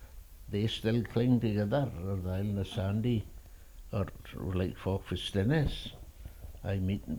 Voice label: Northern and Insular Scots, Orkney - Kirkwall (originally western mainland)